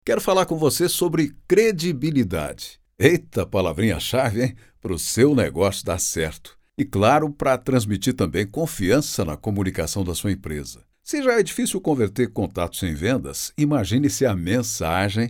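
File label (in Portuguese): Português - Brasileiro, Masculino, Natural Conversado, Voz Madura; Voz Credibilidade; Voz Padrão - Grave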